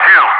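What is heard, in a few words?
"2" calm, English; DCS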